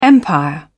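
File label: "empire", British English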